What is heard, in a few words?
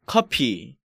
"Coffee", Korean